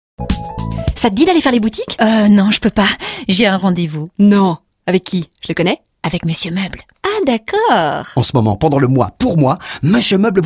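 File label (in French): comedies fifilles, comédienne voix off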